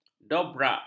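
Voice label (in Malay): "dobrak", Malay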